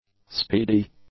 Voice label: "speedy", english; adjectives